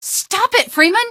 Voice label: stop it, female; Garry's Mod; hl2

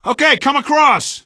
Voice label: plank, male; Garry's Mod; hl2; Streetwar

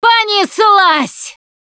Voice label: incoming, russian, Survivor Voice Producer

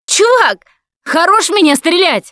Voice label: friendly fire, russian; Voice; Producer

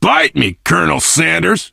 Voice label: initial meeting, survivor voice biker

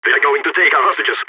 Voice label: hostages being taken, balkan; Counter-Strike Global Offensive

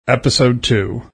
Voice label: "ep two", taunt

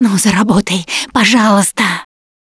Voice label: defibrillator, russian; Producer

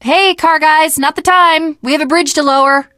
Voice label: finale chat, teengirl, voice; Left 4 Dead 2

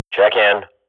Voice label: radio bot report, Counter-Strike swat; Global Offensive; player vo

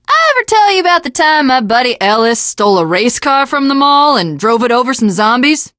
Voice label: teengirl, voice; Left 4 Dead 2; l4d1 finale

